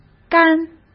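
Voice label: "肝", Mandarin Chinese; hsk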